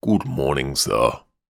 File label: human english male butler says good morning sir